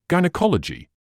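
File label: "gynaecology", British English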